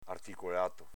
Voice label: "articolato", Veneto